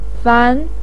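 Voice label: "fan", Mandarin pinyin, tone 2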